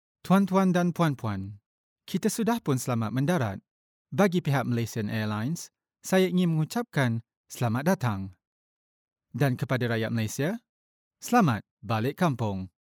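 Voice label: Malay Malaysia male narrative; voice over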